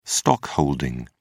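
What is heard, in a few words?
"stockholding", English, British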